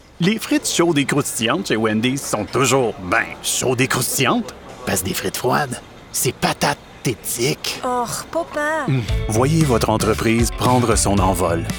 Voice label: French Commercial; TaDa

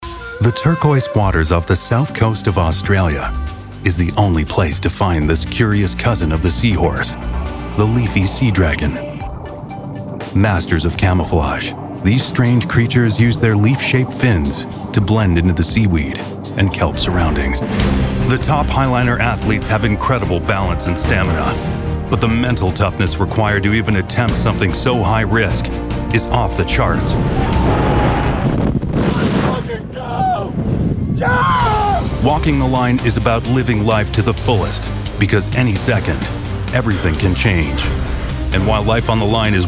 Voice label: Narration; TaDa

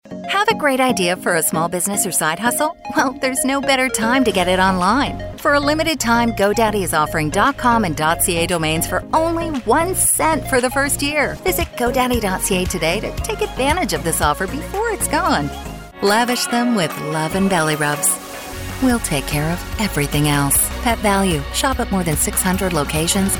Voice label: Commercial; TaDa

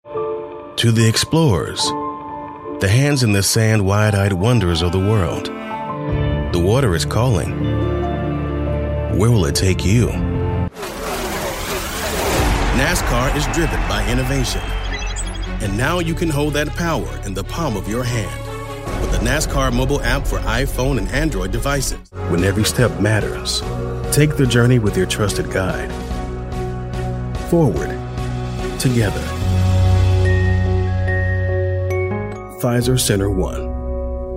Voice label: commercial

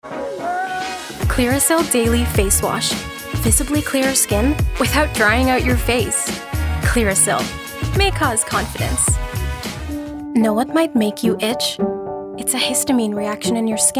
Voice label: commercial; TaDa

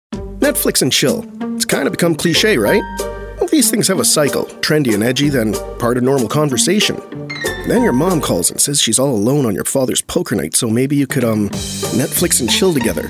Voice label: Commercial; TaDa

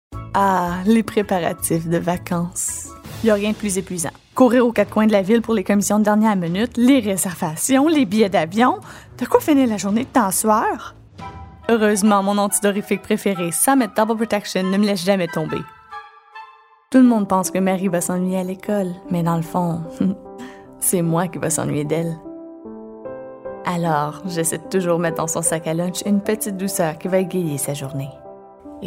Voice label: Commercial French; TaDa

